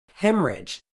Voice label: "haemorrhage", medical English